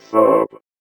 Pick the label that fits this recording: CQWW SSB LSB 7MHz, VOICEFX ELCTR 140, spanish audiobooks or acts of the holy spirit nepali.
VOICEFX ELCTR 140